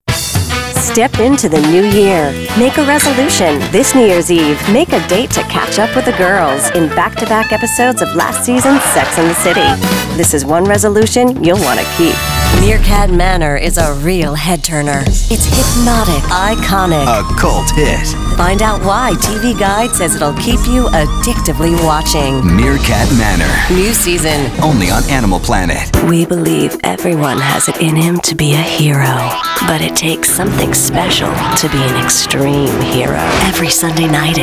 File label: promo female